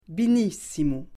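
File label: "binissimu", Corsican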